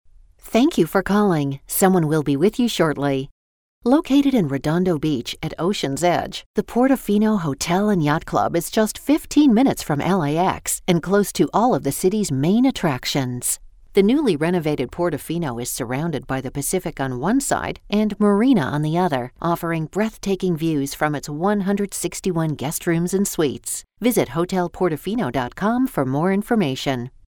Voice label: American Female